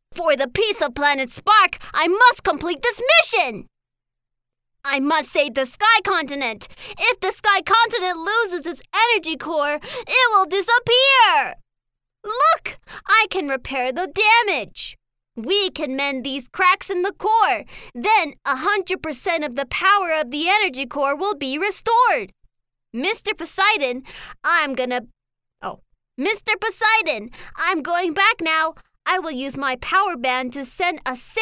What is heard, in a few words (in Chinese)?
外籍女英 外语 美式英语 男童角色